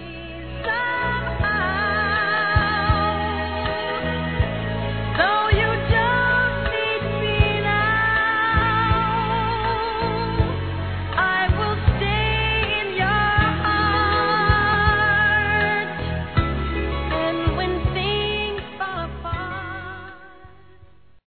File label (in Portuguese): Aniversário Mãe Infantil Masculino; Telemensagens 2017; Aniversários